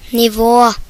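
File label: level, Basque; gcompris-data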